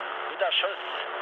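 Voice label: German player killed enemy mood low, speech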